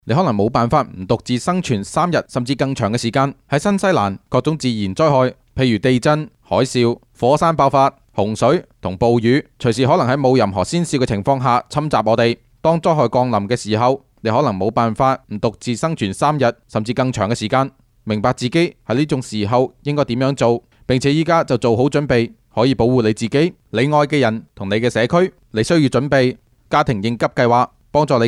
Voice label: Radio Cantonese, radio commercials